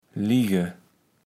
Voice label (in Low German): "liege", kaevels platt